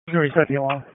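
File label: FIRE Operations, p25; FIRE OPS 2; wake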